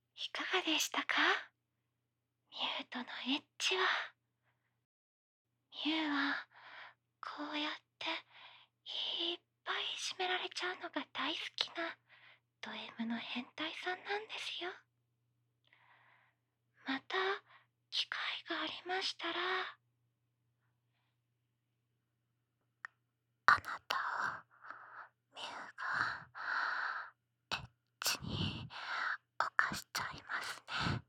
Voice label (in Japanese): 最後に, ASMR